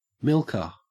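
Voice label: "milker", Australian English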